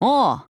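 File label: AGREE, Japanese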